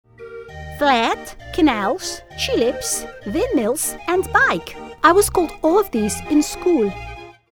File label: DUTCH